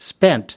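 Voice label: "spent", American English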